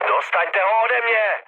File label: aircraft damaged, speech cze, mood low; War Thunder